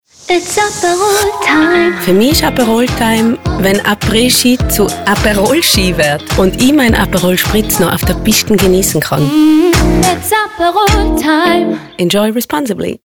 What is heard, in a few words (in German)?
Apres Ski Tiroler Dialekt